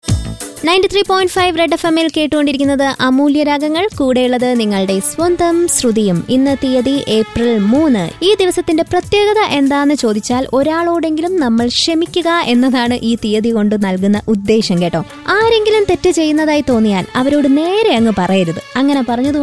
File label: narration malayalam